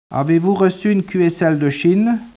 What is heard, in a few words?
speech, French